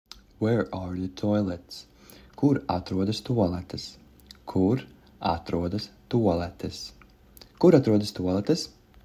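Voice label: Where are the toilets, Latvian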